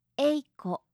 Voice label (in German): "eiko", japanische maedchennamen